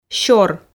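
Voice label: "сёр" Komi, female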